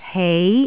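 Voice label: "hei2", Cantonese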